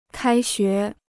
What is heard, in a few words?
"开学", Chinese